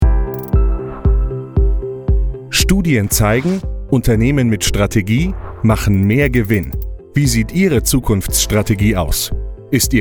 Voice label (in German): imagefilm